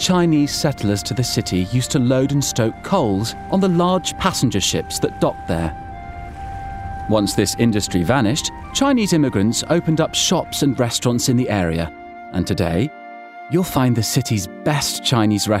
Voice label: DOCUMENTARY Amsterdam